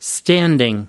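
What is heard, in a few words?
"standing", American English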